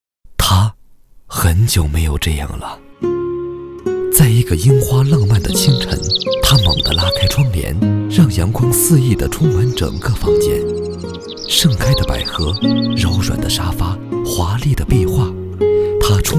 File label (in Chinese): 男97-广告-樱花布艺